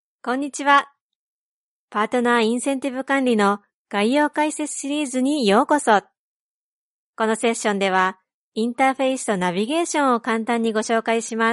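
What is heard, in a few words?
Japanese female VO Narration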